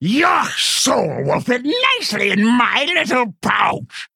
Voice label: Female Quilboar; Sangtusk; Kurtrus